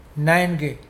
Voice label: "have", Mokilese